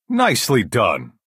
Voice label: Breton male nice play